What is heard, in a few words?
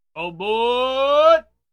Oh Boy shout